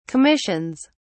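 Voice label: "commissions", English, British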